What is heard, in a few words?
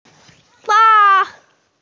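"weng1", Mandarin Chinese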